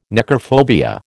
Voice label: "necrophobia", American